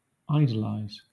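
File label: "idolize", British English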